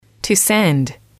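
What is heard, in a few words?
"to send", English, female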